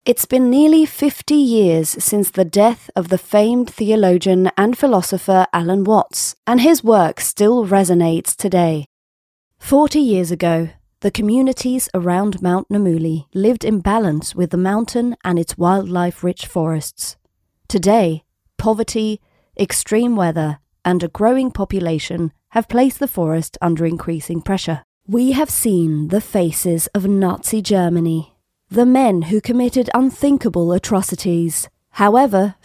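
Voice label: UK English documentary; GoLocalise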